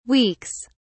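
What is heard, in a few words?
"weeks", British English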